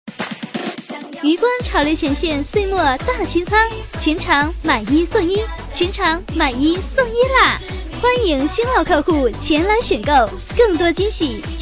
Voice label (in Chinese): 女 促销; 渔关潮流前线